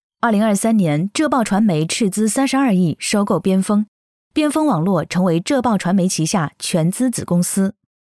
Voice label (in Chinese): 女 新闻; 新闻风格样音; 浙数